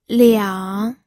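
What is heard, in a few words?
"liang", third tone, pinyin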